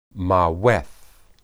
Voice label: "maweth", Hebrew